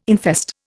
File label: "infest", Indian